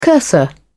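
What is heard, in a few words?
"cursor", British English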